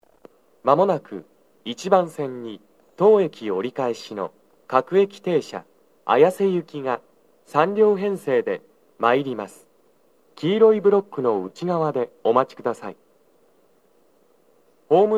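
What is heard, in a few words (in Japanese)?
北綾瀬 1番線 接近放送, 男声, 各駅停車 綾瀬行 (3両編成), JVC横長型での収録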